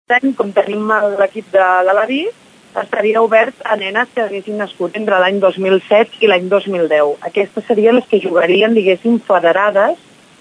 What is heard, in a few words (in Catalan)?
femenina